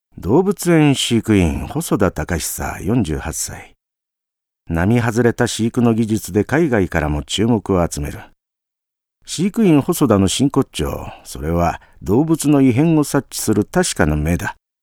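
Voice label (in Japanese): ドキュメンタリー, ボイスサンプル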